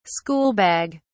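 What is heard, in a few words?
"schoolbag", British English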